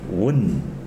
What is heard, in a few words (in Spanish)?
"boca", tsesungun